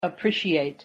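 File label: "appreciate", English pronunciation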